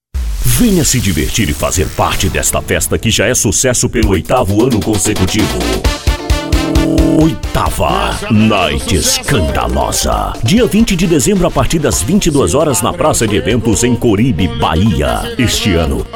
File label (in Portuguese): anúncio; night skandalosa